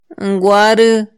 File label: "nguaru̷", Mazahua; INALI; AprendeMazahua